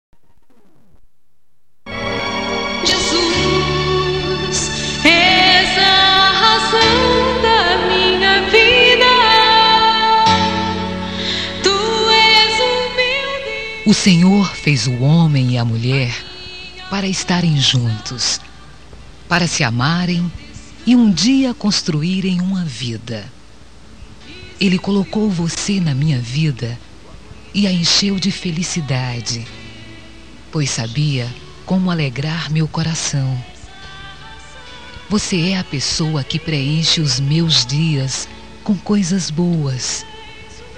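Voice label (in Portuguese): Evangélica Namoro Abencoado, female, telemensagens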